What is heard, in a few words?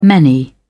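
"many", English, British English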